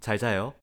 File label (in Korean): "잘 자요", Korean; goodbye in korean